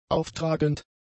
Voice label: "auftragend", German; adjectives